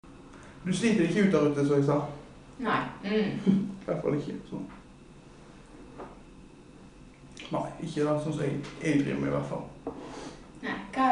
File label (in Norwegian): Granvin, dialekt